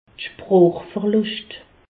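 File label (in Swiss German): "sprochverluscht", Alsatian; medecine; aphasie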